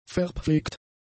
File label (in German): "verpflegt", german; adjectives